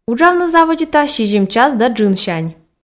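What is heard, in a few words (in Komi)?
"уджавны заводита сизим час да джынсянь", Komi, female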